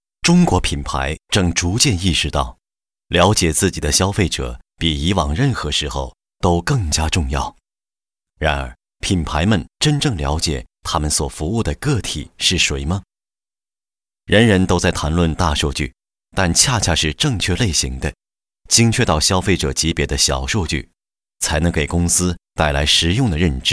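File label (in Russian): голоса, Chinese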